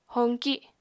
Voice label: "hoñki", apatani